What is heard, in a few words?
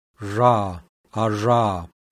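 raised voiced alveolar fricative, IPA sounds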